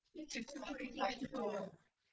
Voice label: calm Fearful generated; GAN for speech spectrogram